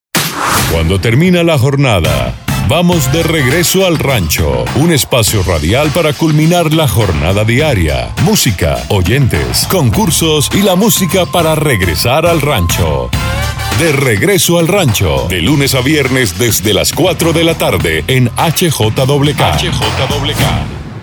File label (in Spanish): promo regreso al rancho